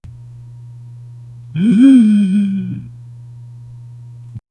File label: the boy says hmm hmm